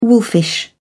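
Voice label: "wolfish", British English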